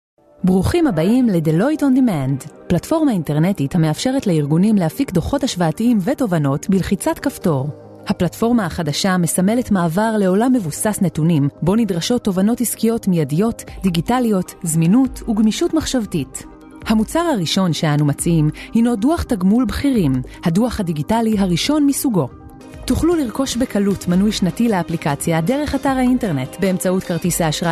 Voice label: hebrew corporate videos

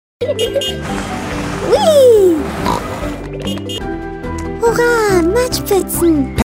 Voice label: german switzerland animation

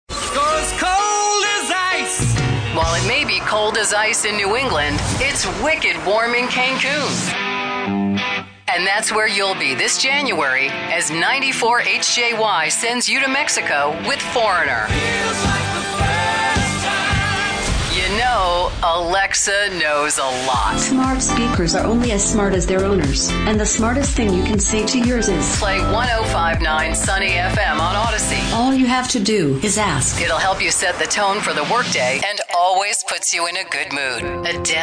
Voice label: english american others

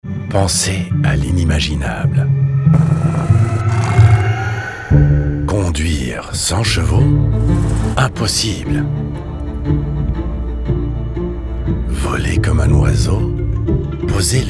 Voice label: french corporate videos

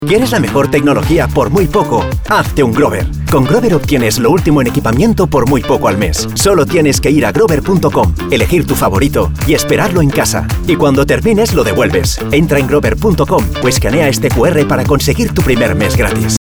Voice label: spanish promos, spanish voice actors; Spanish and Catalan professional voice-over artist

